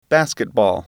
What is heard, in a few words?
"basketball", English